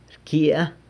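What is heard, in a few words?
"rcyia", I cook, San Lucas Quiaviní Zapotec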